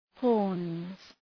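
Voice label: "horns", English; {hɔ:rnz}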